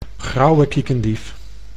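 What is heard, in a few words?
"grauwe kiekendief", Dutch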